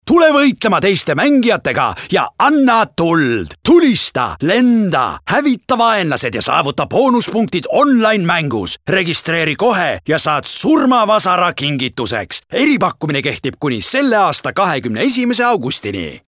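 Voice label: Estonian male Rage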